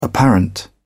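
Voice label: "apparent", British English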